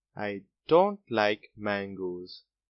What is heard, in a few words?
mango dont like, boy, english; grade1; what do you like